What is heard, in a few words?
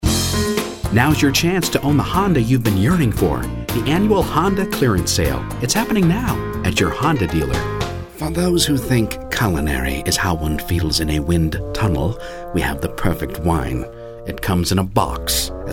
Commercial